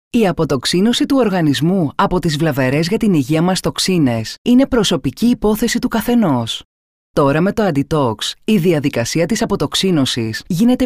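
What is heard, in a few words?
Greek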